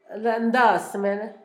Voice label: pantorrila, Zapoteco, Asunción Ocotlan; Cuerpo; INALI; Haspelmath

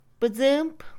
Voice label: nacer, Mixe, Mujer; INALI

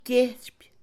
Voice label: enviar, Mixe, Mujer; Movimiento; INALI

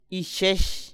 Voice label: "corazón", Kumiai; INALI

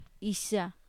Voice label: más, Mazateco; INALI

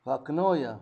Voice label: "ayuda", Zapoteco Magdalena Ocotlan; Sustantivos; INALI; Haspelmath

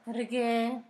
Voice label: mentada, Zapoteco; Sustantivos; San Pedro Mártir; INALI

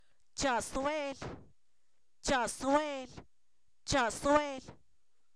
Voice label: cazuela, Zapoteco, san miguel albarradas; objetos inanimados

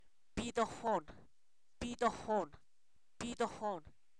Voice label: tornado, Zapoteco; fenomenos naturales

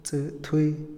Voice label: ley, Otomí, hombre; INALI

